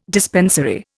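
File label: "dispensary", Indian English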